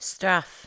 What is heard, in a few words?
"straf", english